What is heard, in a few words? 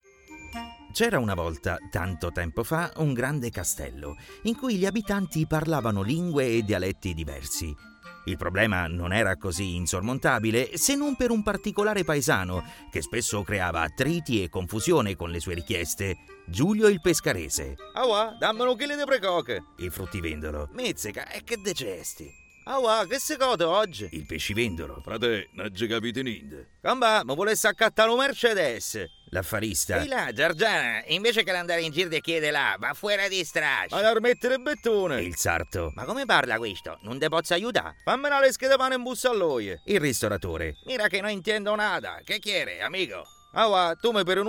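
Italian, General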